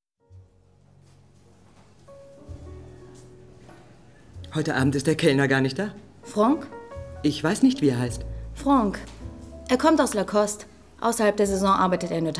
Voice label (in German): Schauspielerin Sprecherin; DemoRealfilm; Swimming Pool